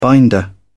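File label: "binder", English, uk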